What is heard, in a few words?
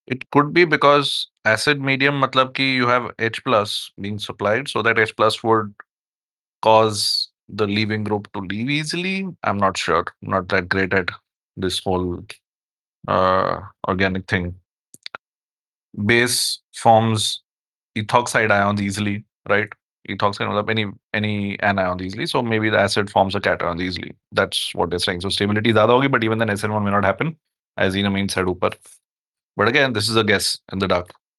voice message